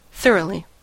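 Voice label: "thoroughly", American English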